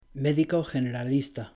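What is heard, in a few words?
"médico generalista", Spanish; pronouncing c g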